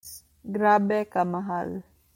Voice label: Cebuano